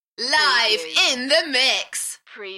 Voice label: UK female Live in the mix Enthusiastic; beanstalk audio